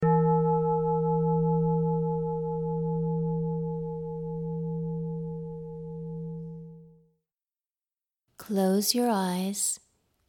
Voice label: Meditation Breathe